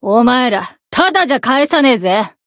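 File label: encounter, Japanese; kid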